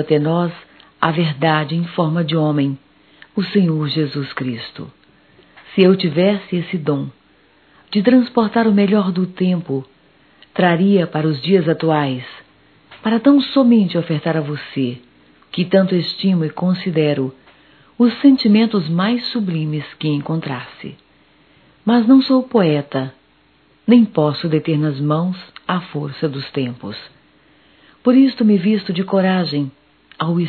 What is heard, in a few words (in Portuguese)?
Natal neutro - F; Natal e ano novo; fem; Telemensagens 2017